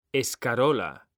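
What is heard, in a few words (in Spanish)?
"escarola", Spanish; mekanta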